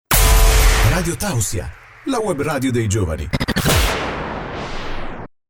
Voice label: italian radio imaging, voice actor

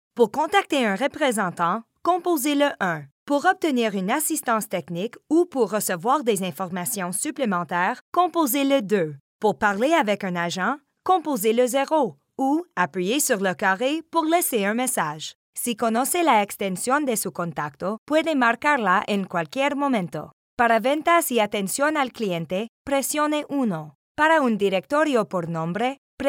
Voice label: french ivr

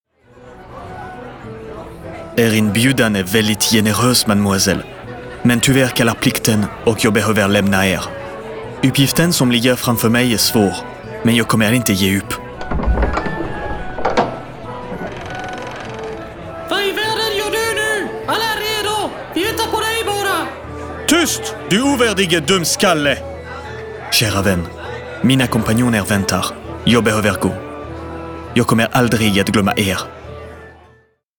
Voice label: swedish video games

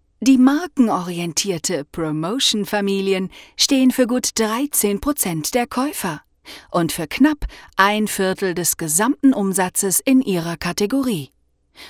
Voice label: german documentaries

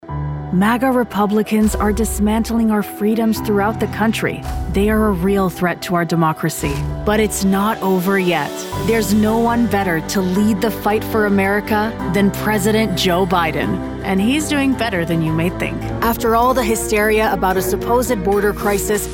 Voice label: english american political ads

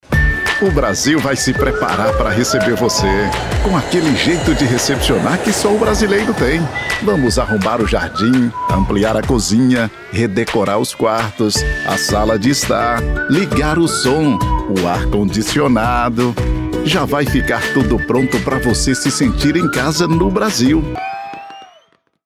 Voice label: portuguese brazilian promos

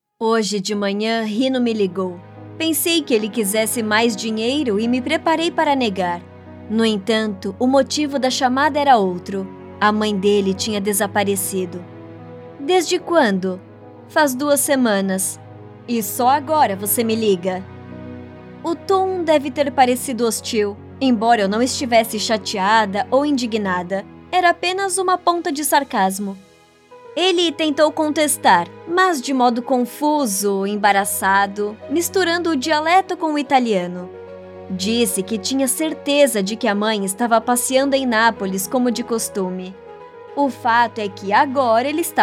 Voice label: portuguese brazilian audiobooks

